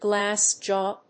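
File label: "glass jaw", English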